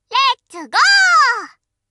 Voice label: im with you, voice commands Anime Loli; svencoop

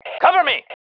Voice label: COVER ME, MALE

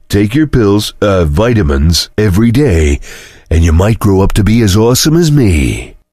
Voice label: chitchat; svencoop; voice commands; Duke

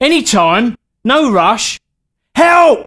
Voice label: Help, male, voice commands; svencoop

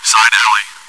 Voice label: chitchat, bot, voice commands; svencoop

